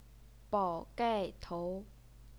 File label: "bao3 gai4 tou2", Chinese pinyin